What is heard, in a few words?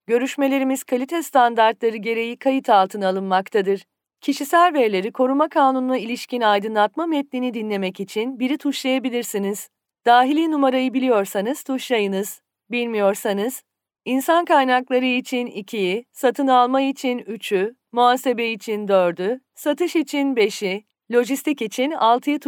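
Turkish, female, IVR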